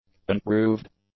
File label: "unproved", english; adjectives